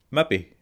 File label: maybe scots